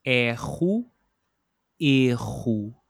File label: "erro", Portuguese